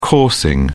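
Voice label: "coursing", British English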